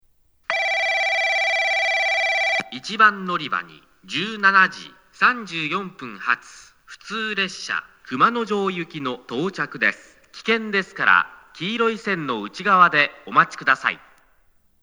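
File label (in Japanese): 1番のりば接近放送（普通 隈之城行き）, 男声放送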